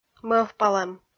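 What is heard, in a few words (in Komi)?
"мӧвпалӧм", Komi, female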